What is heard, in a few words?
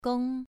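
"gong", Mandarin Chinese, second tone, pronunciation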